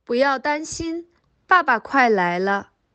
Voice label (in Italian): Cinese